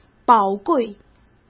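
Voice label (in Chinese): "宝贵", Mandarin Chinese; chinese flashcards; hsk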